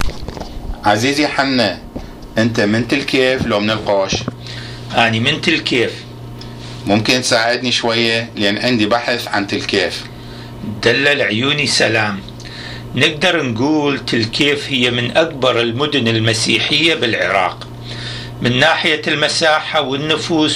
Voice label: Dialogue about Tilkaif City, Iraqi Dialect